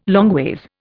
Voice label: "longways", Indian